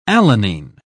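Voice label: "alanine", British English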